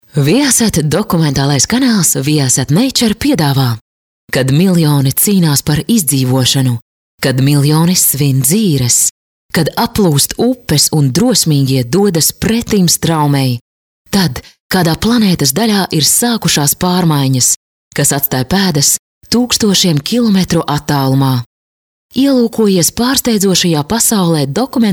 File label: premium female, balsai latviu moteris